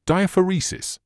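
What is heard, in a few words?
"diaphoresis", British English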